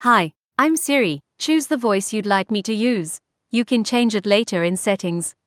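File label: South African English; iOS Sounds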